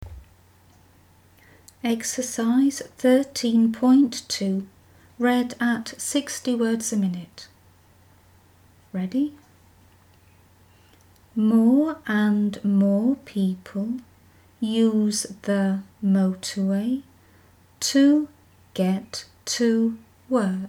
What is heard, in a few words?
60wpm; Teeline